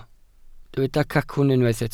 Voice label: "cacwn", Welsh